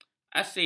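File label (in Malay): "asin", Malay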